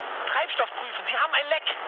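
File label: German tank damaged mood med, speech; War Thunder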